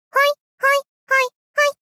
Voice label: ほいっ ほいっ ほいっ ほいっ 幼女×高音域

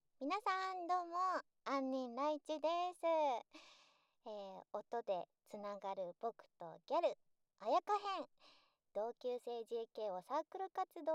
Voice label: PRボイス

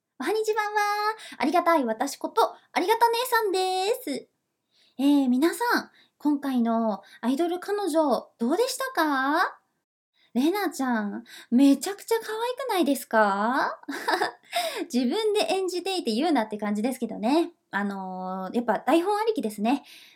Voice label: フリートーク

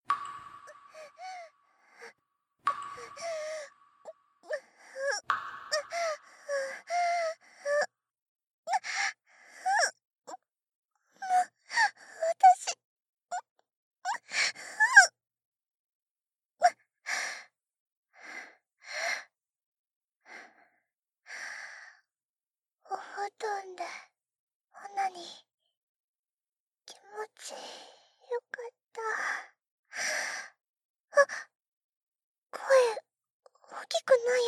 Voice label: ボイスドラマ; メルルのえっちなボイスドラマ

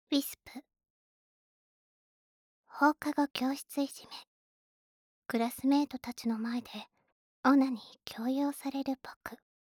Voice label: タイトルコール

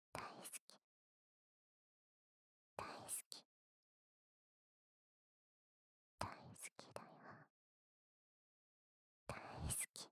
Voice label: 囁き 右耳 大好きだよ

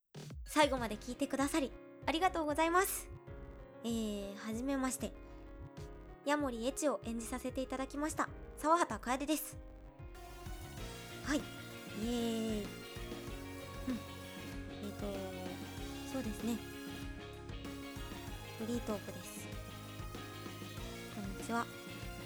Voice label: フリートーク, ASMR

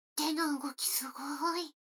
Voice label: 女の子台詞, ASMR

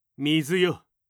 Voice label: 水よ！, 戦闘ボイス 青年・中音

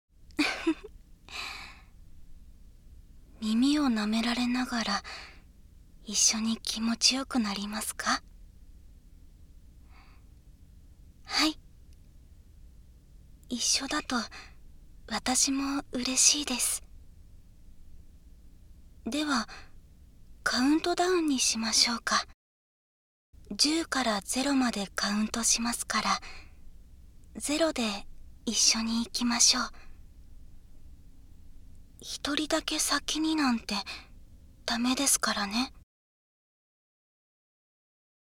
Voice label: 右 声のみ ノイズ除去なし

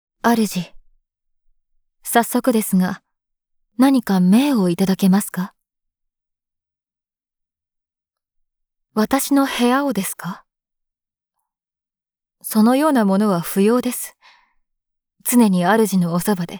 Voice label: 声のみ, ASMR; 効果音なしver

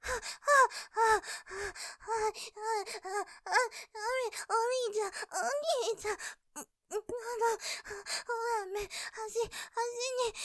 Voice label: 絶頂余韻◆イッてるのにピストンダメェ; ループで楽しむ！シェフの気まぐれ抜き抜きコース; モノラル

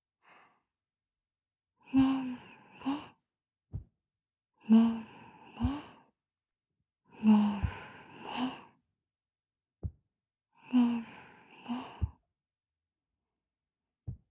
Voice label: ねんね…ねんね…, 右耳

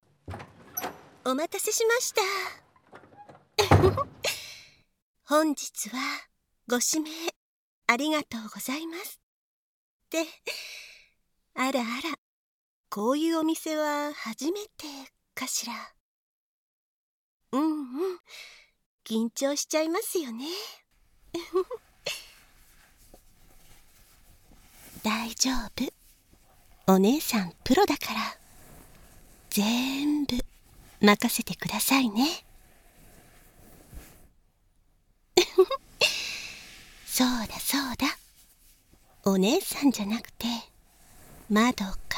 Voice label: オープニング(バイノーラルマイク 効果音あり大きめ), ASMR

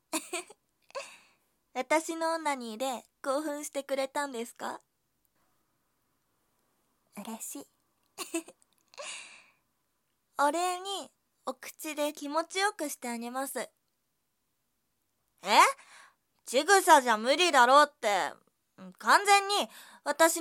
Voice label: フェラ ステレオ, ASMR

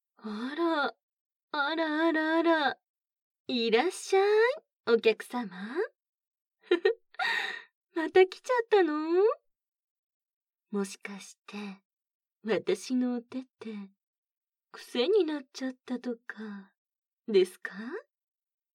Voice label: 効果なし, ASMR; 乳首こねこね手コキコース

